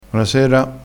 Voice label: "bonasera", dialettale

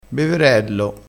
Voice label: "beverello", dialettale

